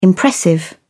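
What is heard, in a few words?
"impressive", British English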